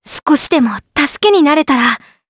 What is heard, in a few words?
Vox Skill jp; kingsraid; voices heroes jp